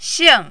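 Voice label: "xing4", mandarin, falling tone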